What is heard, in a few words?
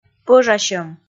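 "пӧжасьӧм", Komi, female; kpv audio dict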